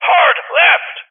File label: Vocal Hard Left; Rally Champ